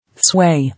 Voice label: "Sway", English